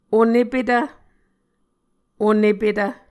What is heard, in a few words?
"onepetv", Muscogee